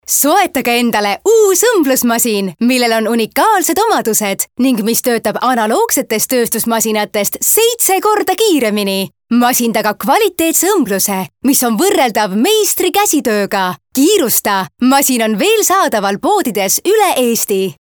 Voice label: EST female Active